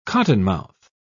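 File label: "cottonmouth", British English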